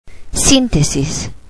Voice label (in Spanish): "synthesis", Spanish